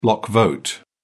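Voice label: "block vote", British English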